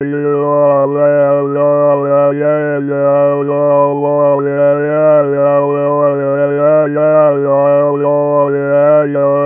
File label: resynth Speech